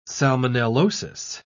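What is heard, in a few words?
"salmonellosis", British English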